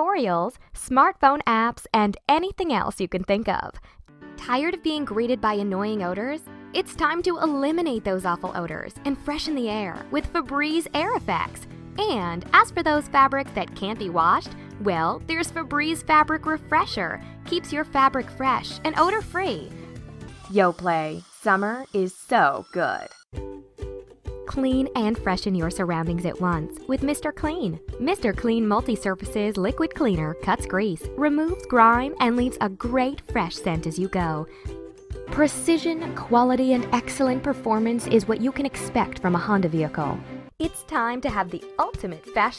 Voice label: VoiceOver